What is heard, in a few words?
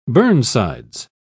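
"burnsides", British English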